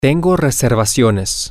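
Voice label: I have reservations, Spanish, male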